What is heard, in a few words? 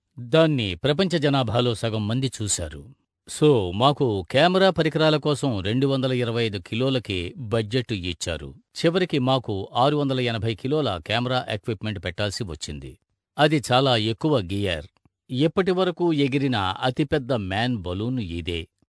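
telugu male voice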